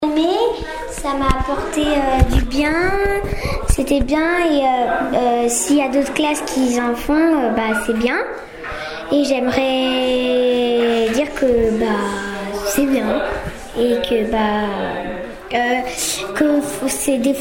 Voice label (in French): témoignage enfant